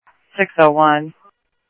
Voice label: Mesa Simulcast police; MESA PD 2 Centrl; MesaPoliceDep; jumper

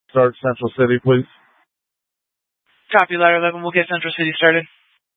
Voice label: Fire Dispatch; K8 - FDCOM 8 - South; C- Chandler

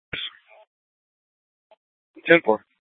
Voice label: Dispatch; Scottsdale

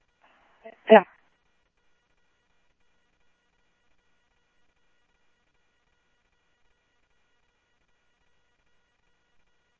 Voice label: Police, scanner audio trunk; H-Scottsdale; SCTSDLE PD - PD2 ScottsdalePolice

